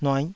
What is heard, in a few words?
"nuaih5", taiwanese